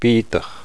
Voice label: gaelic, vowels